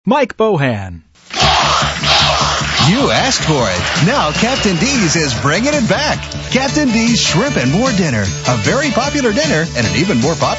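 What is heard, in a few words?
Male Talent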